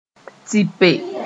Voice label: "tɩpe", moore

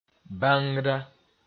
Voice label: "bãngda", Mooré

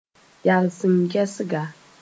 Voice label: "yals-n-gɛsga", Moore

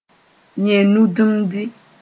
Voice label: "yẽd-nudimdi", Mooré; rectum

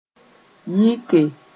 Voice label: "yɩke", Mooré; to fly away

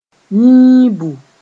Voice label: "yĩibu", Mooré

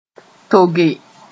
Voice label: "toge", moore

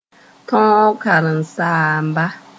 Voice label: "tõog karen-saamba", Mooré

